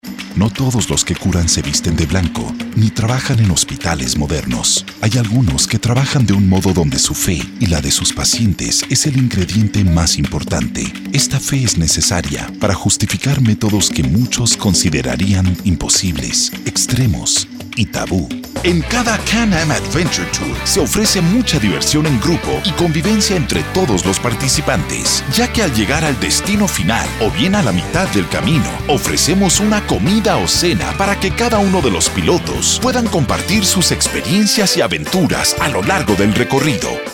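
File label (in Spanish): Narracion, español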